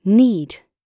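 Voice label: "need", British English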